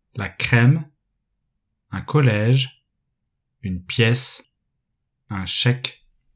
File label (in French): Accent è